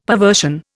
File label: "perversion", Indian; Dictionary